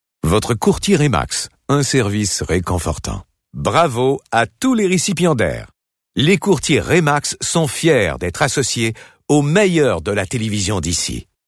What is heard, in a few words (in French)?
Publicite; Remax